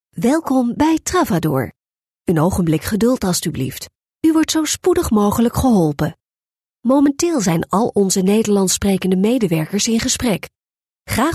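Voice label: Dutch, female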